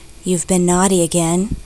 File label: naughty